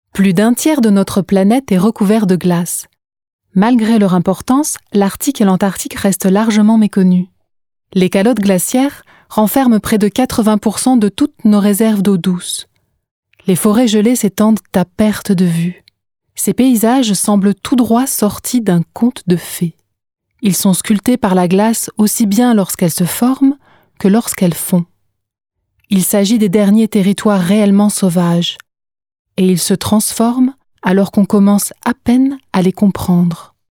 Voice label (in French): Doc fonte des glaciers